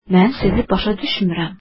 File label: I don't understand you, azeri